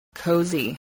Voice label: "cozy", voice spelling

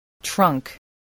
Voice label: "trunk", voice

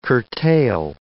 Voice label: "curtail", english

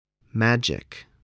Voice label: "magic", spelling voice

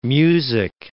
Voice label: "music", English

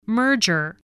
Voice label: "merger", voice, spelling

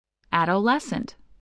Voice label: "adolescent", English voice

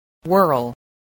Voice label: "whirl", spelling

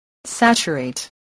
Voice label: "saturate", english spelling

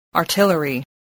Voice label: "artillery", English

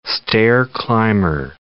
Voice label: "stair-climber", English

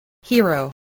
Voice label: "hero", English, spelling

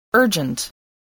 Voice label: "urgent", English

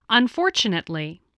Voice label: "unfortunately", English spelling voice

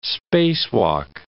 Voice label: "spacewalk", English

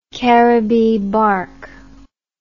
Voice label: "caribbee bark", English

